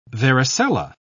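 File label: "varicella", British English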